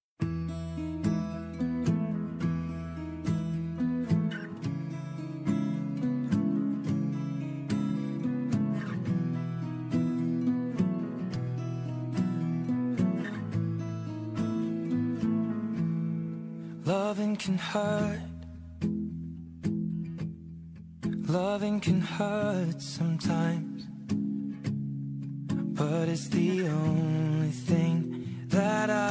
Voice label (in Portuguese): masc consideracao linda, voz masculina; Dia dos Pais